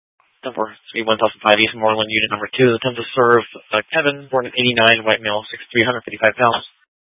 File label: Phx PD Dispatch, scanner